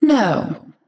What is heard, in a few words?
Female Night Elf ERROR GENERIC